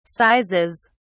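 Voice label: "sizes", British English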